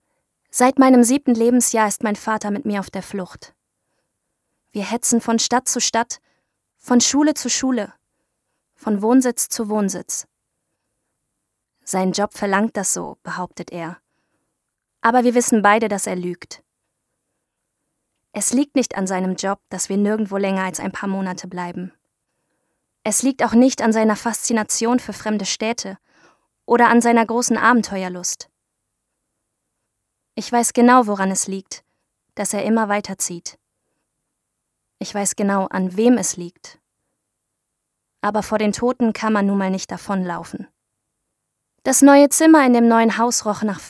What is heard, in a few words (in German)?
Jugendbuch, Audiobook (Hörbuch), German; voice